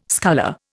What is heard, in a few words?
"sculler", Indian